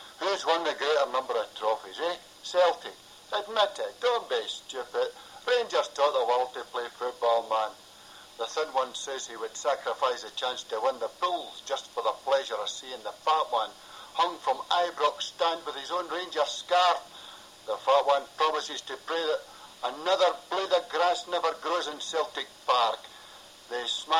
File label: Glasgow Scottish; Inverness Scottish; Scotland